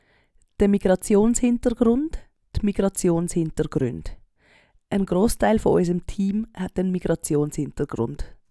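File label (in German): Migrationshindergrund, Swiss German; Wortschatz Kapitel 8; Kapitel 8 Hauptwortschatz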